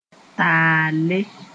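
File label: "taale", Mooré; rebuke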